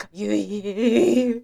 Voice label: horror "kayui"